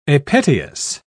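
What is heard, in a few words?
"apetalous", British English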